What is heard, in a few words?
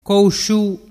"cousciu", dialettu